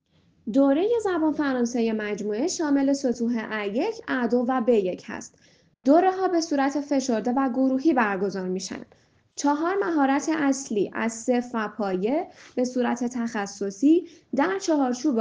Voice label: French; Gama; language school tehran